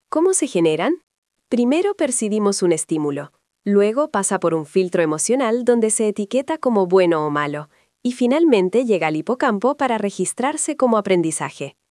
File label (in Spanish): locucion